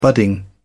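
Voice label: "budding", English, British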